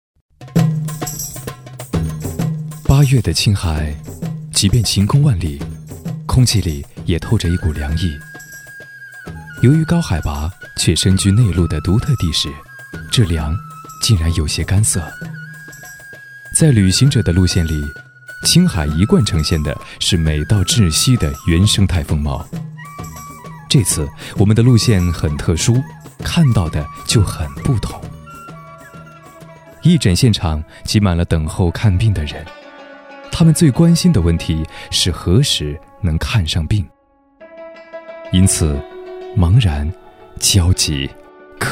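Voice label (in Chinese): 男国大音-（自然-讲述）; 青海卫视韩红“百人援青”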